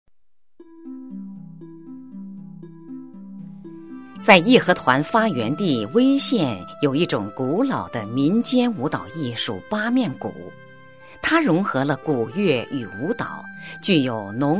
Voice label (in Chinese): 配乐, 国语女声配音, 成熟专题; 威县八面鼓